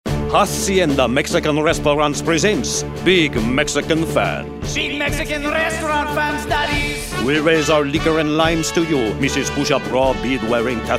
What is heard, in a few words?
Character